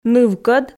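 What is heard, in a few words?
"нывкӧд", Komi, female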